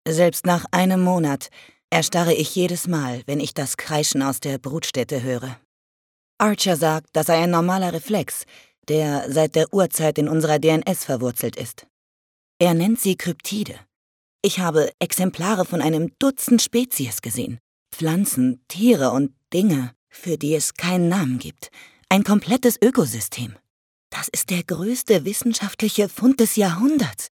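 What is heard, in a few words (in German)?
Sprecherin monolog, female speaker, German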